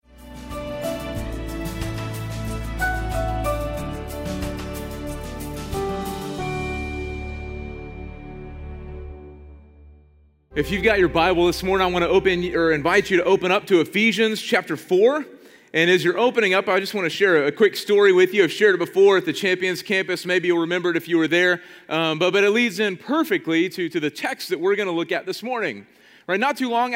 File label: Sermon English